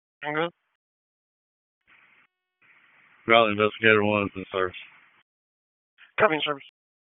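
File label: Fire Department HQ Dispatch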